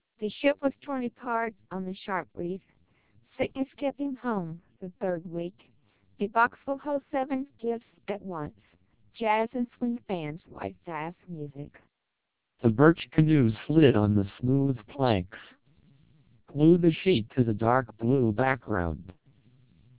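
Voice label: American speech, TWELP 700 bps vocoder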